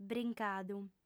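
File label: "brincadu", female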